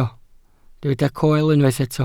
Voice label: "coel", welsh